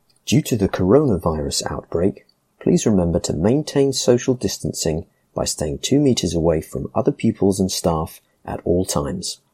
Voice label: Schools Coronavirus social distancing male voice

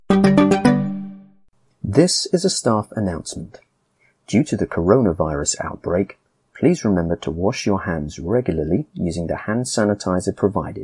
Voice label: Coronavirus wash hands with jingle male voice

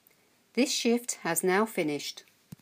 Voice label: Shift end female voice

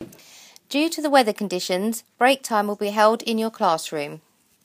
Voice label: Weather conditions female voice

